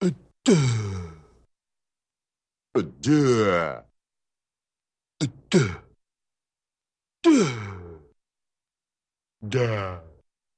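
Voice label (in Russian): zvuki golosa peshchernogo cheloveka, caveman voice sounds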